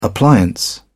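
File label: "appliance", British English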